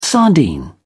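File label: "sardine", British English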